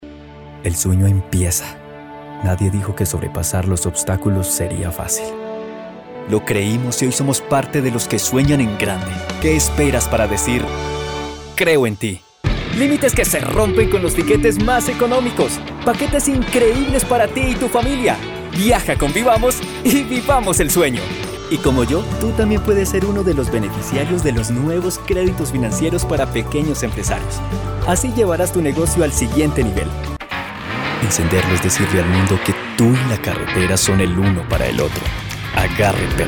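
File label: Colombian Spanish; generico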